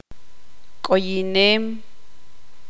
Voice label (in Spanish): "broma", tzutujil